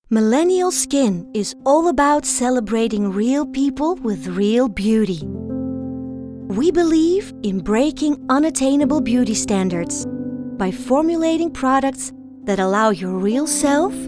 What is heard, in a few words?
English